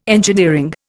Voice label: "engineering", Indian

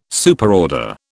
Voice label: "Superorder", British

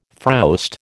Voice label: "frowst", American

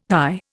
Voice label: "thy", Indian

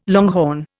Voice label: "longhorn", Indian

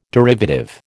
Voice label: "derivative", American

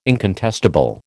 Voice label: "incontestable", American

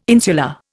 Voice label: "insular", Indian

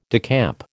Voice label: "decamp", American

